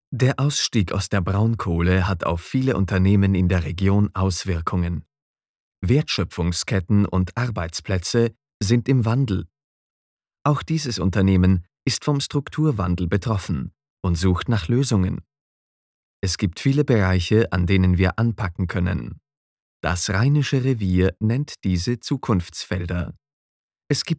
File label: german documentaries